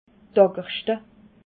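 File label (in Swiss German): "agerschte", Alsatian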